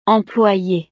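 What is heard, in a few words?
"employee", French; profesiones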